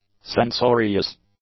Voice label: "censorious", English; adjectives